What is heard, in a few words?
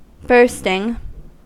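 "bursting", English, US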